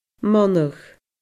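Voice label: "monadh", Scottish Gaelic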